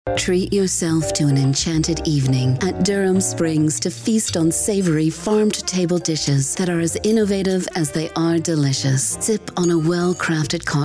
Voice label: Radio; Durham Springs